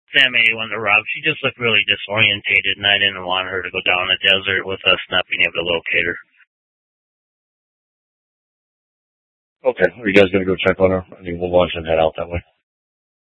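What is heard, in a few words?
Mesa Police Department Superstition Dispatch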